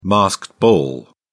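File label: "masked ball", British English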